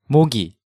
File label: "Mogi", Hangeul sounds; DigitalSoju